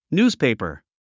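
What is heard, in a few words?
"newspaper", English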